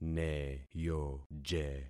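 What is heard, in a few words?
"neyoje", concatenated syllables; Affixing experiment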